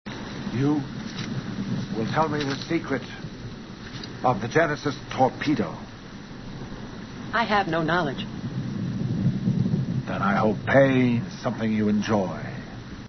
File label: pain